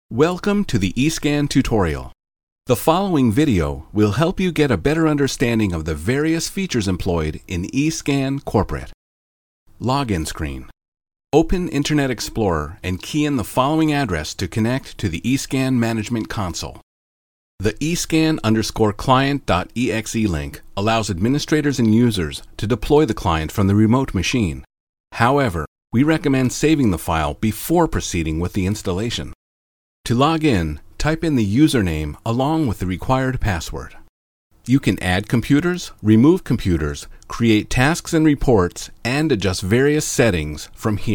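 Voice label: English American male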